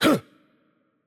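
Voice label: Japanese, attack light; ja JP; VO; bloom minigame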